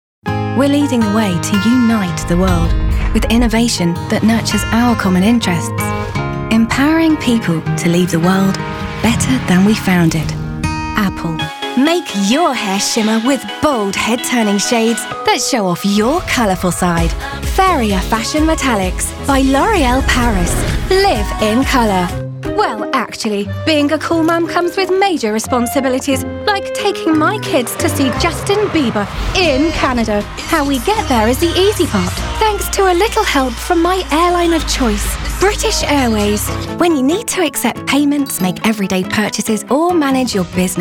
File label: Commercials